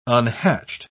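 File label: "unhatched", British English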